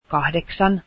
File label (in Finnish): finnish eight, Finnish